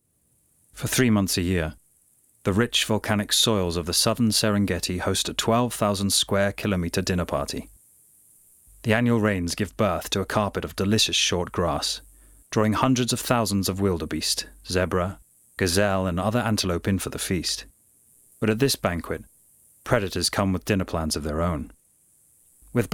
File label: narrative